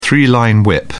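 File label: "three line whip", British English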